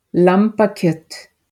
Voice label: "lambakjot", regla 1

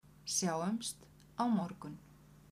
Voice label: "sjáumst á morgun", kvedjur

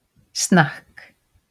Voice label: "snakk", regla 1

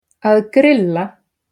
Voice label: "grilla", regla 1

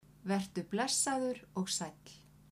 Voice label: vertu blessadur og saell

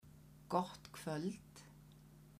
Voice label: "gott kvöld", kvedjur

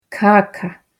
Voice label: "kaka", regla 1

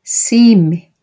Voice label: "simi", regla 1

